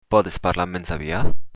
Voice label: slowly, occitan

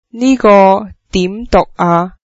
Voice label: how do you pronounce that, cantonese

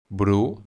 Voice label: "brown", Catalan

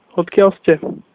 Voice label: where from, slovak

